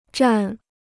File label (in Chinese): "占", Chinese